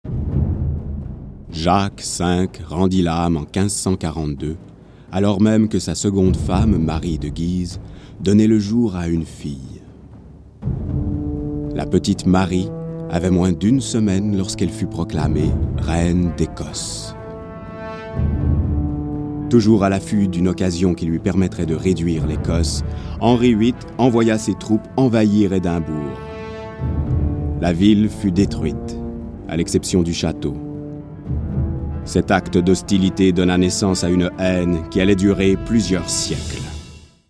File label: narration